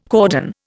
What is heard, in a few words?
"cordon", Indian